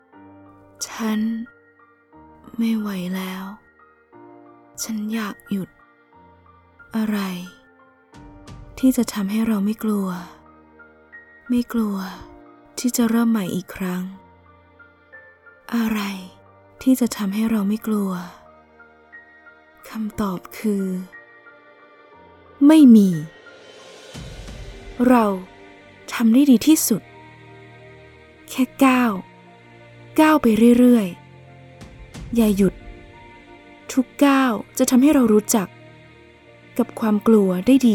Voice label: thai narration